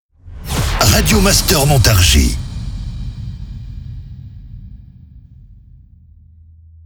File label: Sweeper MEDIUM; Radio Master Montargis; Habillage